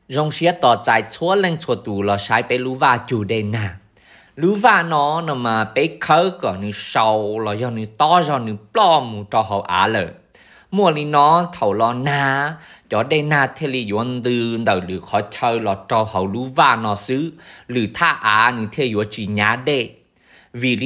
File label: Spring Rain Hmong; rain garden